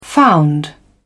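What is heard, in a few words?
"found", English, British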